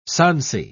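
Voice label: "sonsy", British English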